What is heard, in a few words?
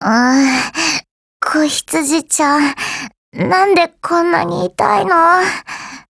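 BREAK, Japanese; Falcon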